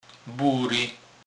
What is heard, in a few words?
bury, Kurpie; slownik